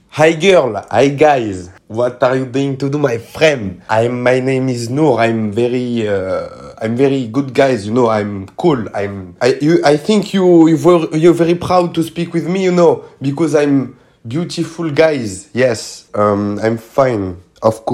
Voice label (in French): ANGLAIS NUL